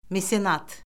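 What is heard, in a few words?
"mesenat", Azerbaijani; Orfoqrafiya Lüğəti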